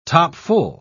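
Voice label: "topfull", British English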